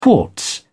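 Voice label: "quartz", British English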